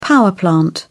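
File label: "power plant", English, British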